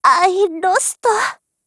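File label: RETREAT, Japanese; M1891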